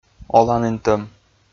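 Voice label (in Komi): "оланінтӧм", Komi, male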